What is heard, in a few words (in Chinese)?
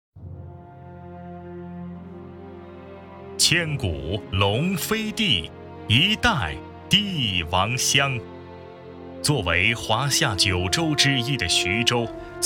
城市宣传片, 大气; 徐州